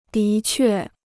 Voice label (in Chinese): "的确", Chinese